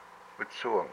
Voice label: my hair, hupa